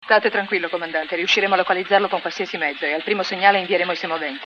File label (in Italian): voci italiane, doppiaggio; Wanda; Allarme Rosso; Virginia Lake